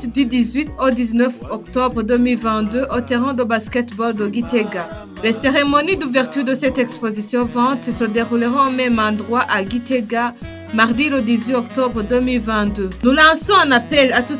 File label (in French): Spot publicitaire journee femme rurale, fcais